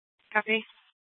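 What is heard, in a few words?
police dispatch, scanner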